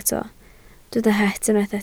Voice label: "het", Welsh